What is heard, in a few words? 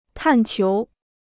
"探求", Chinese